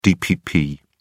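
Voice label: "dpp", British English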